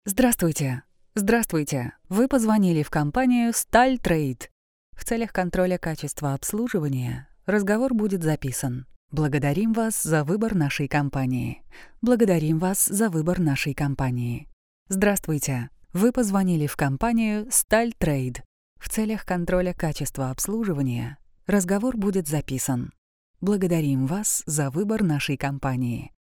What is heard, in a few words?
ivr; Stal trejd